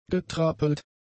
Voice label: "getrappelt", german; adjectives

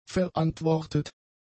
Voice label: "verantwortet", German; adjectives

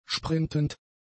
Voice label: "sprintend", German; adjectives

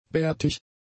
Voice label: "bärtig", German; adjectives

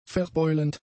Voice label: "verbeulend", German; adjectives

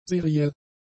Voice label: "seriell", German; adjectives

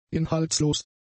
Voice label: "inhaltslos", German; adjectives

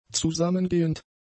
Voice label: "zusammengehend", German; adjectives

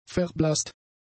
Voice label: "verblasst", German; adjectives

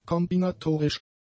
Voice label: "kombinatorisch", German; adjectives

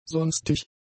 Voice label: "sonstig", german; adjectives

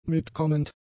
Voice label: "mitkommend", German; adjectives

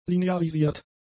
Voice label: "linearisiert", German; adjectives

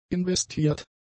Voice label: "investiert", german; adjectives

